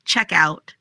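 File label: "Checkout", pronunciation; shopping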